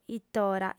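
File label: "it'ora", female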